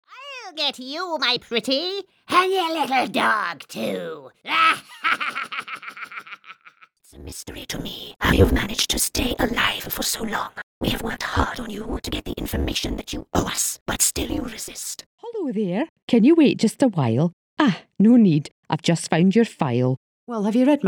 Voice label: Characters Witch Evil old woman Scottish Northern Spider French Old Folk; Texas